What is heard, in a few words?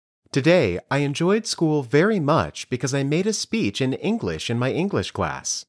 Dictation